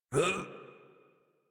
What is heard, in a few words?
Adult Operator Jump, en; Warframe; New War